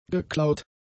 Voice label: "geklaut", German; adjectives

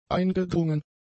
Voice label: "eingedrungen", German; adjectives

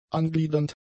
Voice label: "angliedernd", German; adjectives

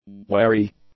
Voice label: "wary", English; adjectives

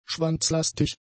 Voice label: "schwanzlastig", German; adjectives

